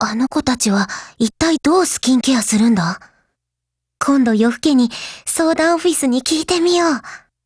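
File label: DIALOGUE, Japanese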